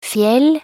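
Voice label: "fjell", Norwegian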